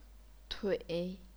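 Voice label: "tui", chinese pinyin, third tone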